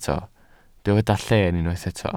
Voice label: "lleen" Welsh

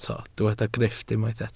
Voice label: "grifft", Welsh

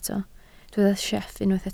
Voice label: "sieff", Welsh

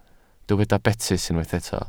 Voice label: "betys", Welsh

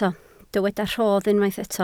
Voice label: "rhodd", Welsh

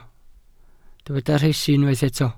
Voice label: "rhesi", Welsh

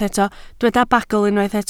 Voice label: "bagl" Welsh

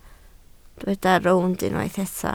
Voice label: "rownd", Welsh

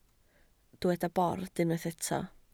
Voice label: "bord", Welsh

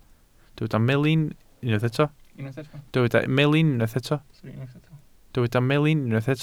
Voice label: "melin", Welsh

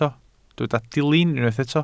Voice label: "dilyn" Welsh

